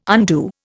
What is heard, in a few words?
"undo", Indian